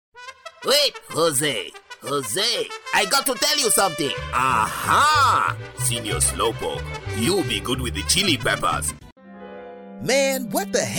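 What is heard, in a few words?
English UK, male, animation gaming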